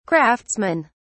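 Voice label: "craftsmen", British English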